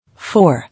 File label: "Four", English